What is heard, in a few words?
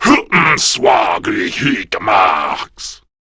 Reload, Male; Warframe; Grineer Male B; Battle Barks